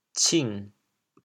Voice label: "cing3", chaoyang